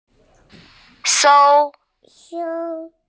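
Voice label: "sou1", Mandarin Chinese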